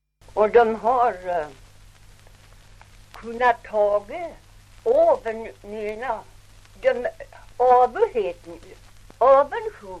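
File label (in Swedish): Ovansjö Gästrikland, dialekter; Folkminnen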